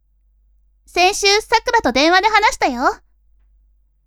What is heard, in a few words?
women